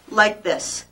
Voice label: "like this", female